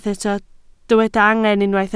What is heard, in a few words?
"angen", Welsh